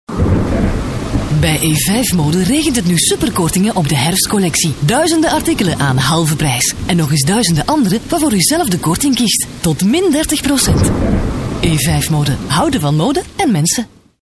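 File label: flemish female commercial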